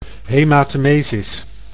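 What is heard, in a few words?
"haematemesis", Dutch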